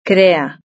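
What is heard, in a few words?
"crea", Spanish; verb-conjugator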